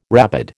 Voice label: "rabid", American